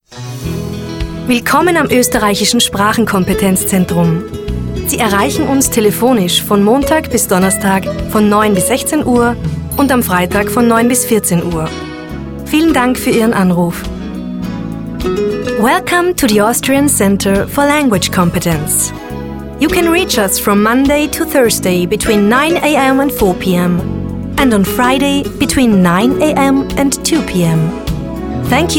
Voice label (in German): Telefonansage deutsch englisch